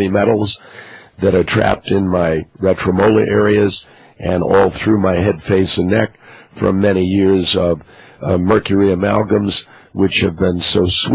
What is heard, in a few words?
raspy voice